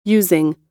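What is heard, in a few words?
"using", American English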